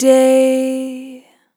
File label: "Long Day", Vocal Samples, Words